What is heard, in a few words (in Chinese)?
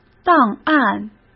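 "档案", Mandarin Chinese; hsk; chinese-flashcards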